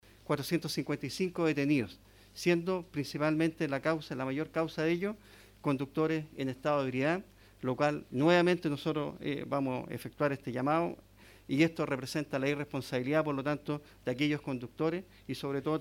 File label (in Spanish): CUÑA; CARABINERO